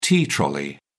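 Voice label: "tea trolley", British English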